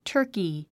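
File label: "turkey", English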